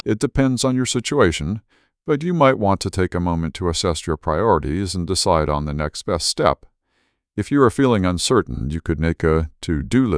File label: emotion; S2S-Bench; cascade